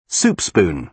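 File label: "soup spoon", British English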